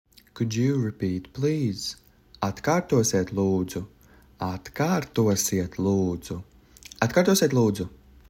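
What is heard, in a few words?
"Could you repeat please", Latvian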